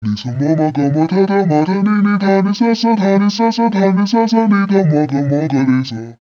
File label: voice, pitchshift; usb uvi workstation